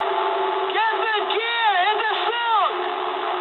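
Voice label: English bailout mood high; speech; War Thunder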